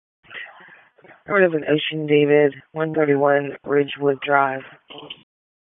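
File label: Police Northeast District Dispatch; Raleigh; wake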